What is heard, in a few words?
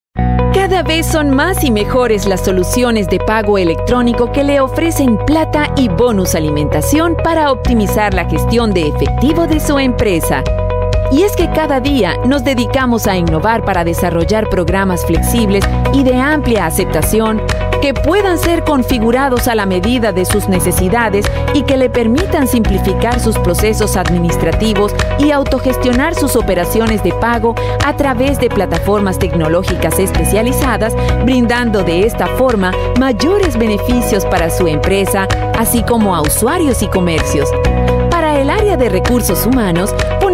spanish voice over female elearning